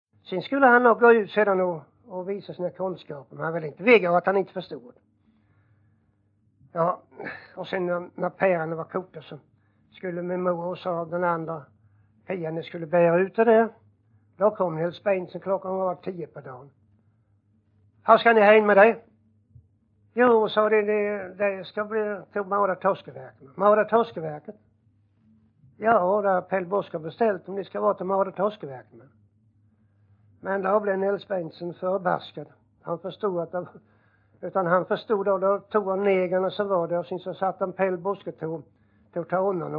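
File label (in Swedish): Jämshög Blekinge, dialekter; Folkminnen; Svenska sagor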